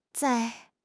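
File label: "zai4", Chinese; HSK; HSK2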